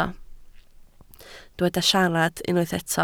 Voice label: "siarad", Welsh